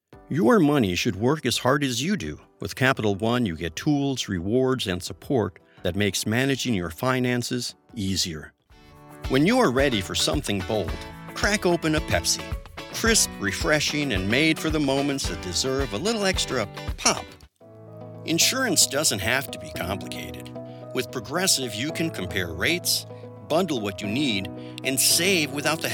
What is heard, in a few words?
Commercial; Fiverr